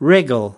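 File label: "wriggle", US English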